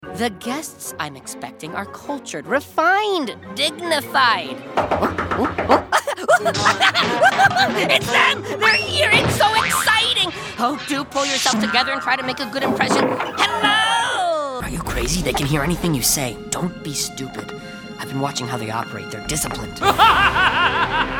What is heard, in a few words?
Animation voiceover